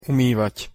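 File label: "umývať", Slovak; pronunciation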